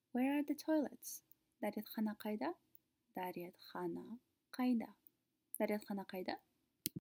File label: Where are the toilets, kazakh